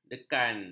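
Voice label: "dekan", Malay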